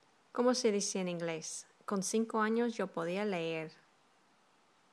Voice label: con cinco años en inglés

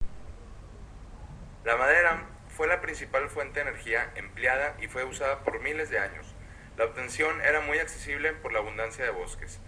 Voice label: narracion en espanol; mix energetico

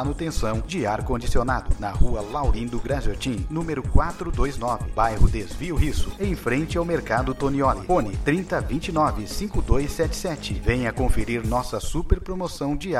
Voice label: comercial para radio

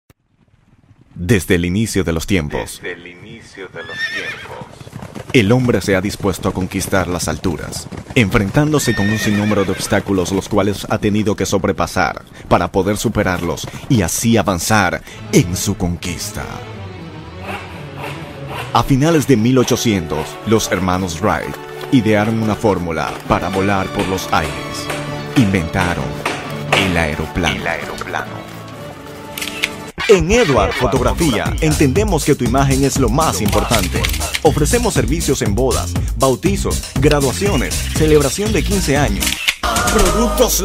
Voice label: voice over programa de television

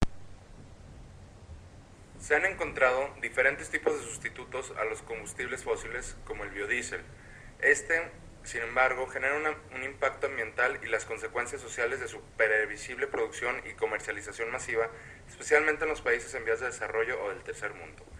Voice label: narración en español del mix energético

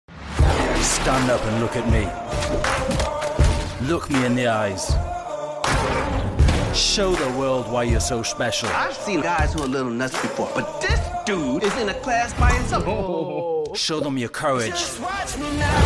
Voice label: locuciones en ingles britanico trailer para tv

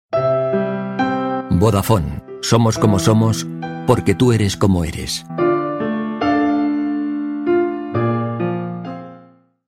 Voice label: locución publi castellano

